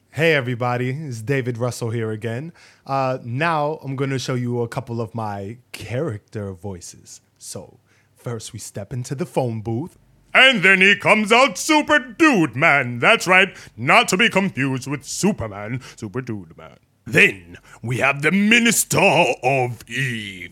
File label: english male; Male Voice 1 男聲1; English 英語